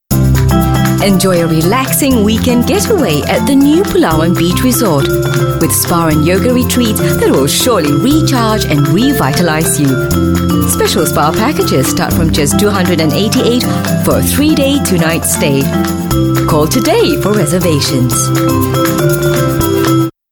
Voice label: English Singaporean Female